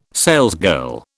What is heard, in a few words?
"salesgirl", British